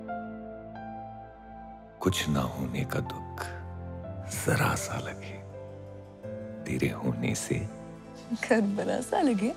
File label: Dialogue Ringtone; Shikara